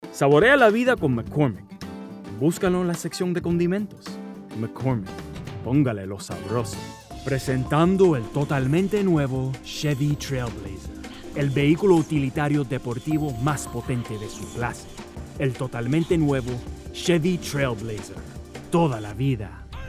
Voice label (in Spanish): Spanish